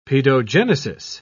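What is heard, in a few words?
"paedogenesis", British English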